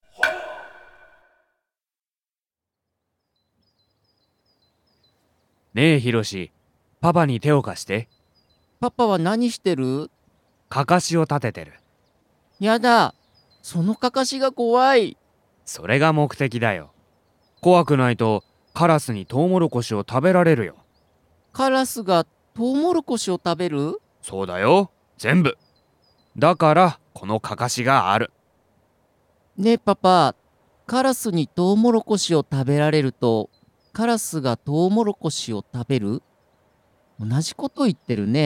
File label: dialog; JapanesePod101; Beginner Season 1